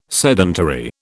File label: "sedentary", British